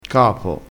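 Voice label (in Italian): "capo", dialettale crespinese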